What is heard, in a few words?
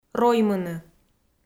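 "роймыны", Komi, female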